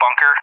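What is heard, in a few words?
"bunker", English; Target; DCS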